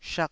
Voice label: "shak2", taiwanese

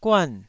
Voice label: "guan4", Taiwanese syllable

